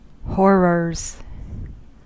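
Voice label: "horrors", US English; pronunciations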